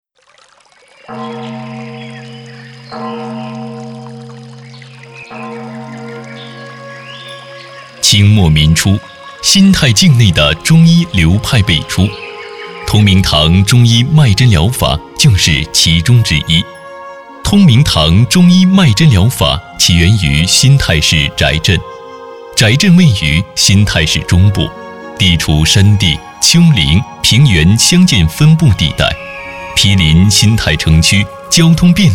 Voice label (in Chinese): 男 宣传; 脉针疗法